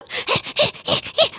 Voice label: Panic